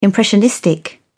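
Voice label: "impressionistic", British English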